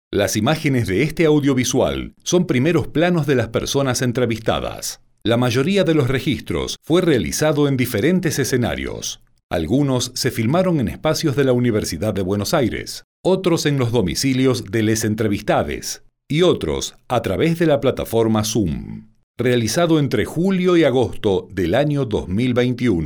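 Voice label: corporativo, Argentine Spanish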